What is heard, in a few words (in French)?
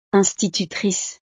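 "institutrice", French; profesiones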